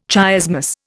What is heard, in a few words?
"chiasmus", Indian